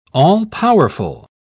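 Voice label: "all-powerful", English, British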